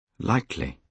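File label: "likely", British English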